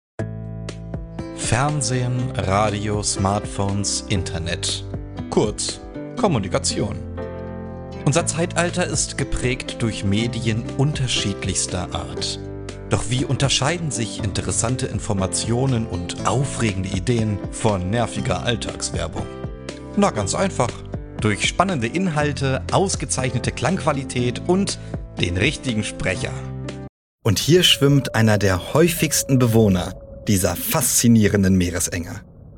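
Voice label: German, male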